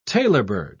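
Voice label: "tailorbird", British English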